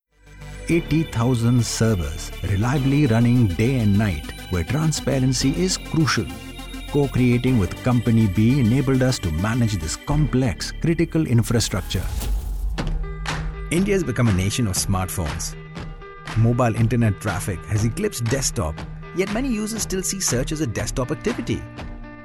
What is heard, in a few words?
English Asian